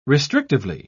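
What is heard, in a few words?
"restrictively", English, British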